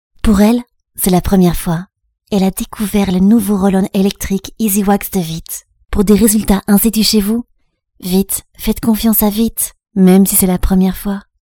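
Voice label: French France, female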